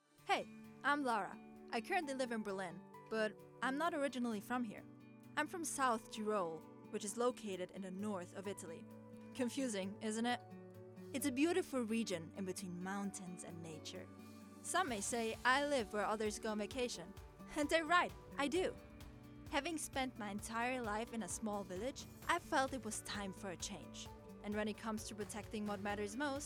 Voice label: Intro Commercial; LOFT VOICES

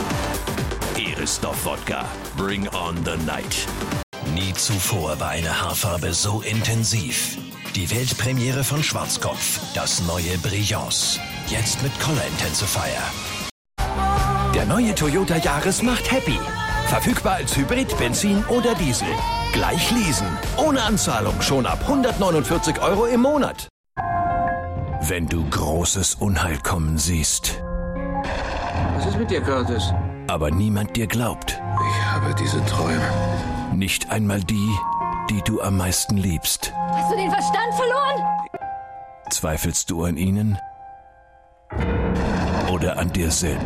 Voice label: promo reel commercials; LOFT VOICES

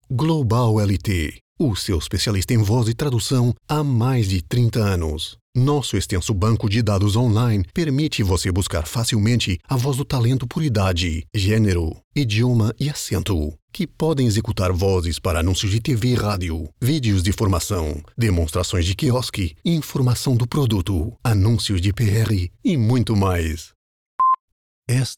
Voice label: Voice Sampes Mix Brazil

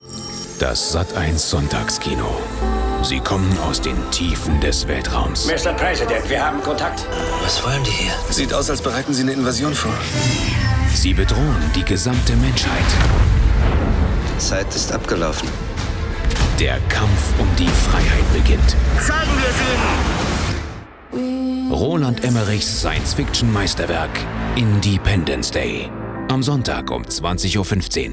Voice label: Station Voice; Sat.1; Independence Day

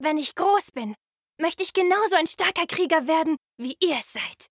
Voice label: Young Child

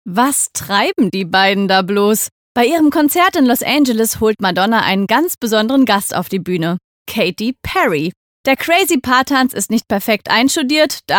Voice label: News; BOULEVARD; RTL

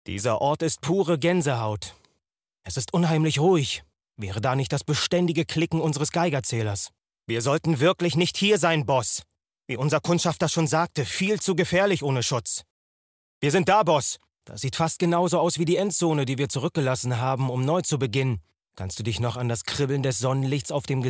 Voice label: Computerspiel Voice Over; Endzone

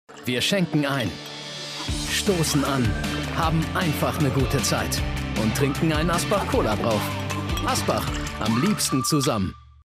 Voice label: Commercial Cola TVC; Asbach Uralt; LOFT VOICES

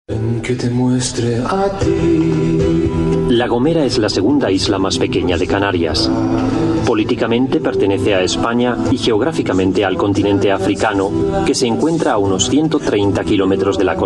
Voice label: es

